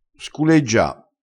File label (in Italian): "sculeggia", dialettale